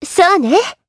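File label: voice, Japanese; Erze; kingsraid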